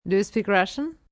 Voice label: Speak Russian, Questions, female: 'Do you speak Russian?' (Russian accent); (human voice speaking); Talking